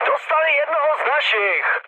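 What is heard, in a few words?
aircraft destroyed, Czech, mood high; War Thunder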